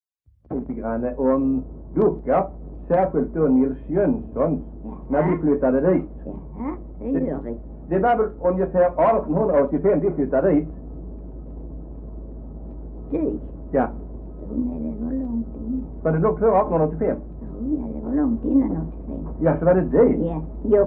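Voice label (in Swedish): Äsphult Skåne, Svenska sagor dialekter; Folkminnen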